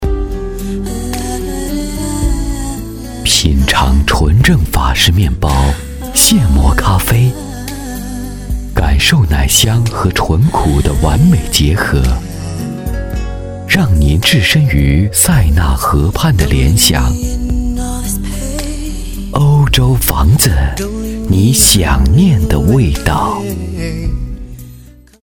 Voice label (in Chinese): 男国 广告; 塞拉河畔